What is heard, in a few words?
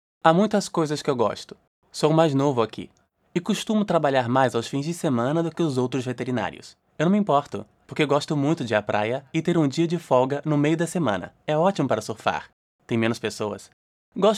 brazilian m learning, portuguese bra voice; voice demos